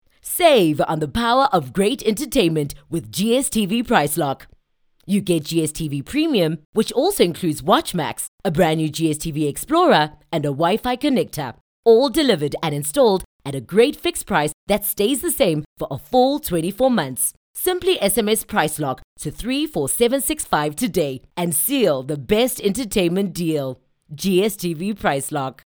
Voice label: English; OSM Talent